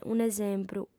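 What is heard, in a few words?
"un'esempru", lingua sarda, female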